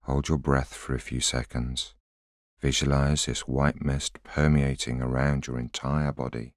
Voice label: voiceover